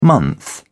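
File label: "month", British English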